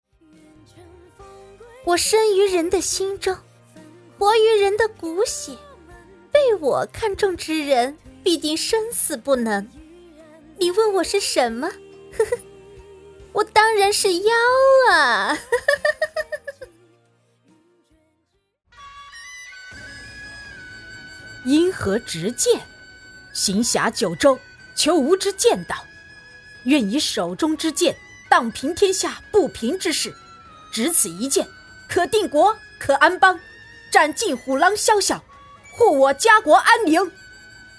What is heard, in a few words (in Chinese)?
多音色